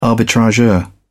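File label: "arbitrageur", British English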